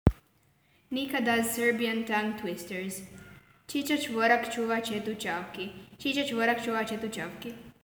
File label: Serbian